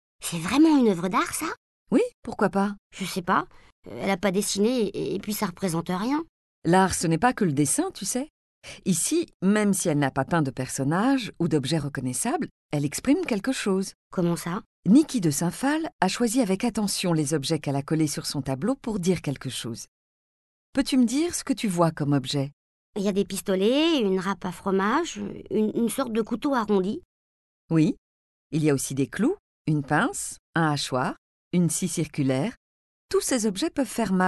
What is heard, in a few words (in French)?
voix off petite fille; grand palais